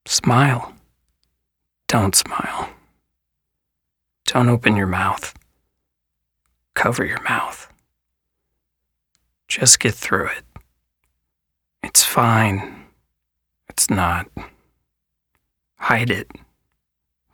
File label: somber ad